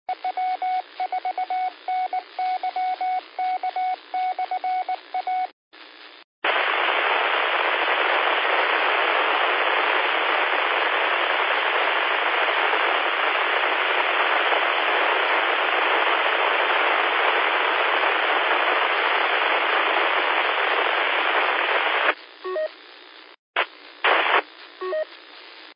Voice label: qso rec simplex logic